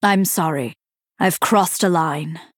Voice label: Female Night Elf Sorry